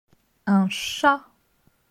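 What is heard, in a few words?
"Un chat", French; delf a1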